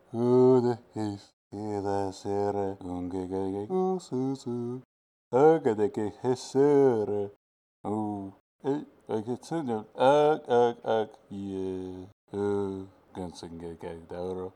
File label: masked mutter loop